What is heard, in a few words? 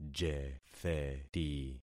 "jetheti", concat syllables; Affixing experiment